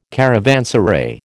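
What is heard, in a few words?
"caravanserai", American English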